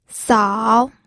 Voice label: "sao3", pinyin

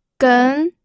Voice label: "gen2", pinyin audio

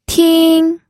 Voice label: "ting1", pinyin

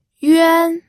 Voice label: "yuan1", pinyin

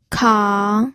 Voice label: "kang3", pinyin

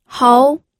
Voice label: "hou2", pinyin audio

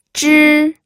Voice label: "zhi1", pinyin

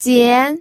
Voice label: "jian2", pinyin audio

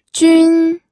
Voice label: "jun1", pinyin

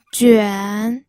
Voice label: "juan3", pinyin

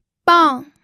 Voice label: "bang4", pinyin

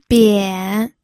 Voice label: "bian3", pinyin

